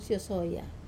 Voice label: "sosoya", tinkle, wamesa

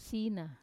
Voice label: "sina", bone, wamesa

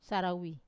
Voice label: "sarawi", sail, wamesa

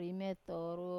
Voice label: "rime toru", eight, wamesa

